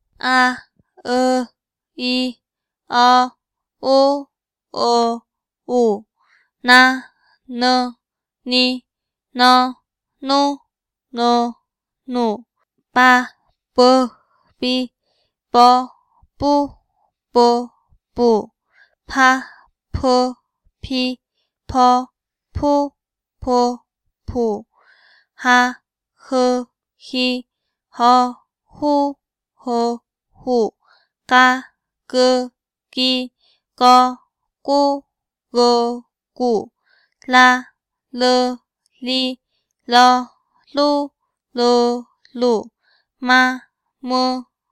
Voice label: sounds all female, mongolian